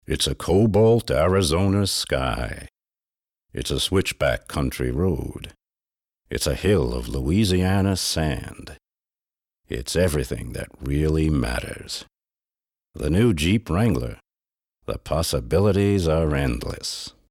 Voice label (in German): us englisch